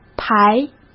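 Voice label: "排" Mandarin Chinese; hsk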